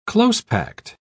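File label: "close-packed", British English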